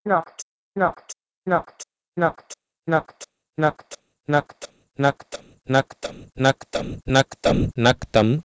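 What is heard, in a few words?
Proto-Indo-European nokwt to Sanskrit naktam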